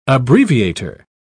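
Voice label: "abbreviator", British English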